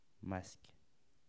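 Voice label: "masque", French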